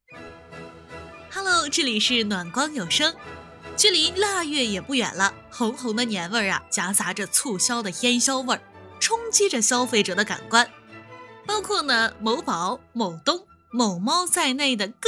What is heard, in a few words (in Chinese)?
新闻稿件年货对比活力女; 新媒体稿件年货对比